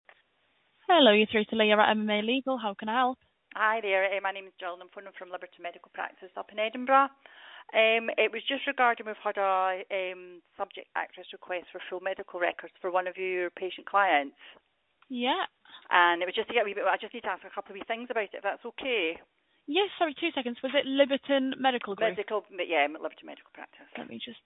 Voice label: call recording